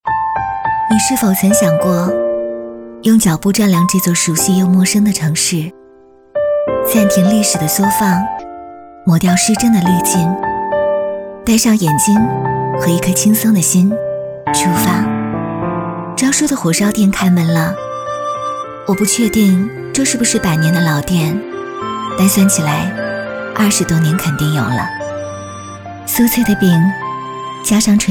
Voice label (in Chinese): 女 走心旁白 城市漫游